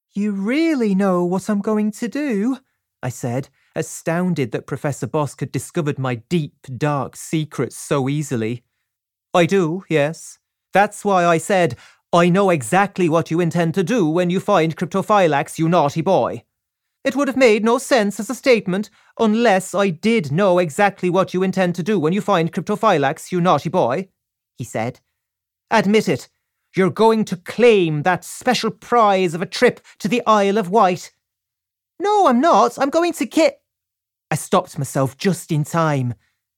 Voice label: young Northern narrator and Irish adult